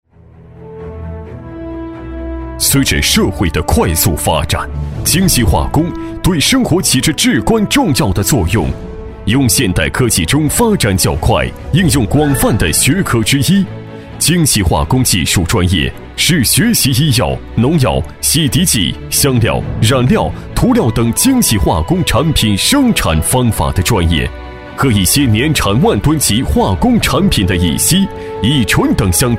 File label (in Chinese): 男 化工; 大气浑厚